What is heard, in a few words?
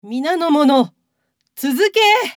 japanese Queen; age2